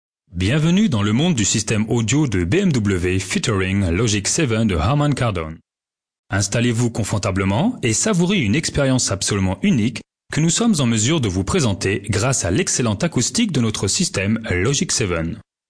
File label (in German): industriefilm, sprecher